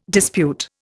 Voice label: "dispute", Indian